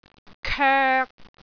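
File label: "koek3", Cantonese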